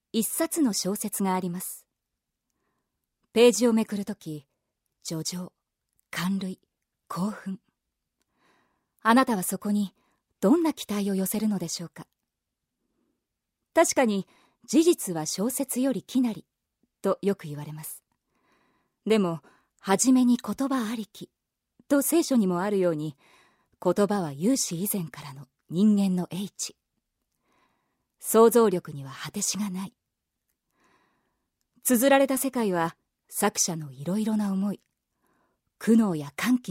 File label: narration